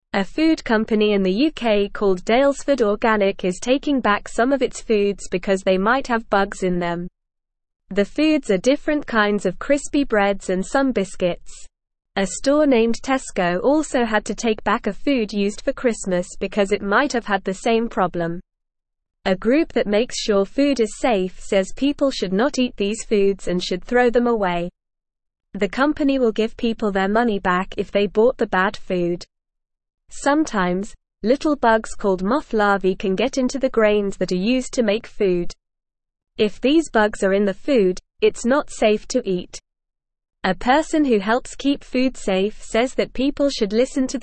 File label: English NORMAL Reading; Bugs Found in Food Daylesford Organic and Tesco; English Newsroom